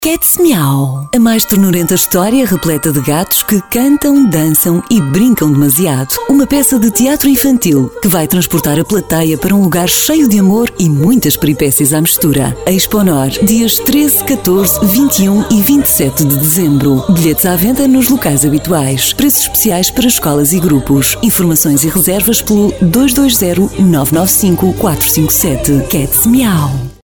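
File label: Portuguese, female, commercial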